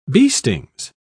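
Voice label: "beestings", English, British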